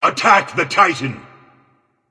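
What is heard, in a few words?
attack, male; smite; anhur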